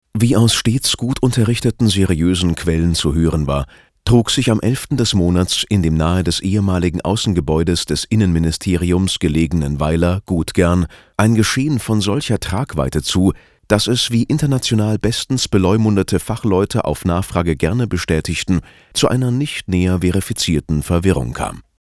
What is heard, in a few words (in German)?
Trocken Neutral